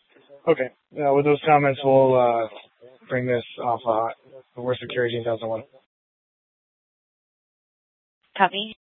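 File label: Mesa Police Department Superstition Dispatch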